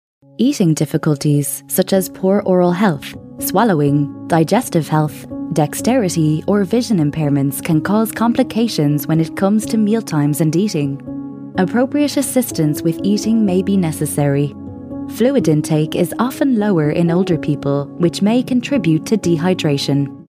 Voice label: irish voice over e-learning